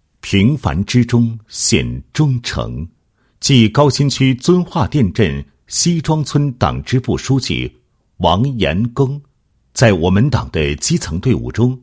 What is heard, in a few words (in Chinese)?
男 人物; 记溪庄村党支部书记王某